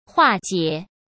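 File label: "化解", Mandarin Chinese; hsk; chinese flashcards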